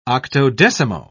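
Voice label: "octodecimo", English, British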